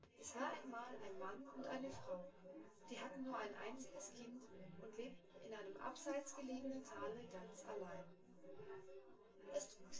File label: female synthconv